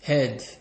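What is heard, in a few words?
"head", Nigerian Pidgin, male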